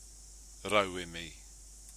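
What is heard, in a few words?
"rauemi", Māori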